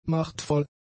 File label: "machtvoll", German; adjectives